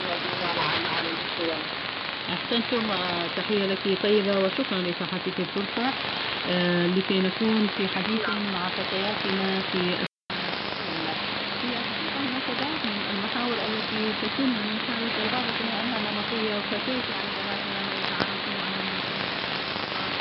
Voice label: Voice of Iran AM; 13785khz